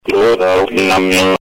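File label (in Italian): "dirò", Italian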